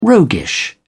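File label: "roguish", British English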